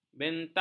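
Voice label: "bentak", Malay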